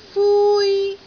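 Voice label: "fui1", Cantonese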